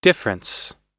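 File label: "difference", American English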